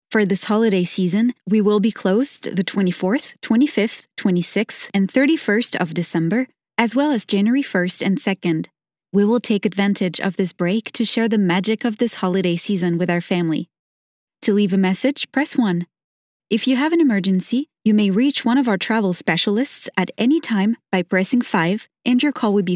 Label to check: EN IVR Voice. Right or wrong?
Right